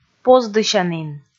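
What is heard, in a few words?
"поздысянін", Komi, female; kpv audio dict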